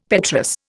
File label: "petrous", Indian; Dictionary